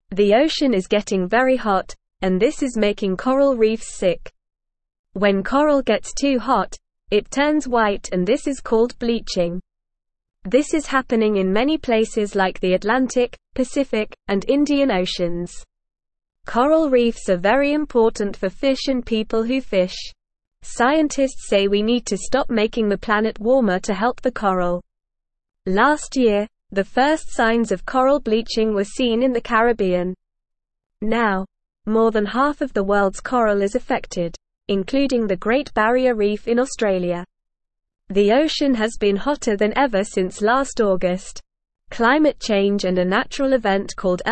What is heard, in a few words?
English NORMAL Reading; Hot Ocean is Making Coral Reefs Sick